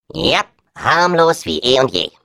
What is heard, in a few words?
Defective Turret return defect fail de